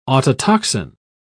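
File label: "autotoxin", English, British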